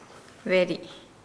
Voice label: Nukuoro; sea urchin